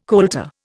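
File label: "coulter", Indian English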